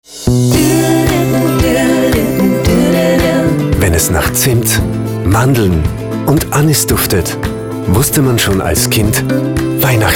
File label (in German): Spot, Gesang am Ende; Ströck; Winter; Omas Lieblinge